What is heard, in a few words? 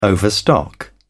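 "overstock", English, British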